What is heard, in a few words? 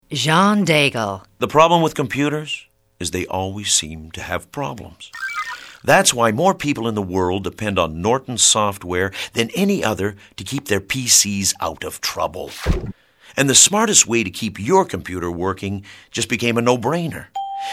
commercial male